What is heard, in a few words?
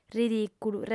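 "ridìculu", female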